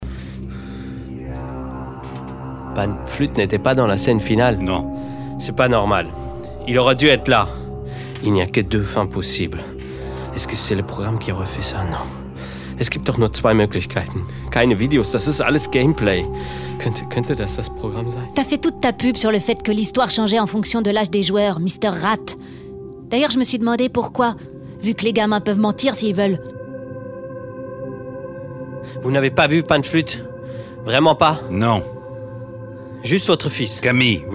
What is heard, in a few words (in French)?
Voix Fiction Audio FR avec accent ALLEMAND